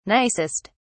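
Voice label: "nicest", British English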